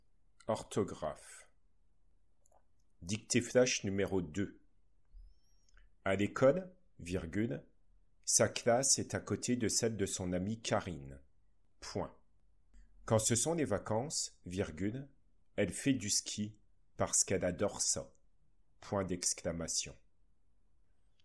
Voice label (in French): dictee flash k, le son [k]